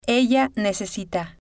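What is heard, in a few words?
"ella necesita", Spanish, female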